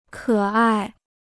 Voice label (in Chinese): "可爱", Chinese